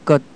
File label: korean